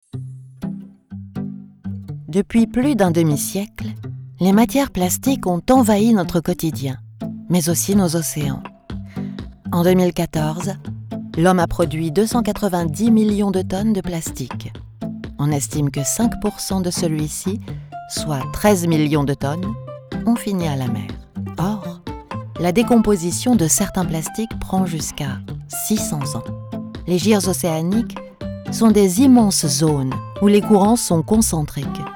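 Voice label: French Narration; GoLocalise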